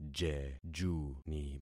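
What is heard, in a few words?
"jejuni", concatenated syllables; Affixing experiment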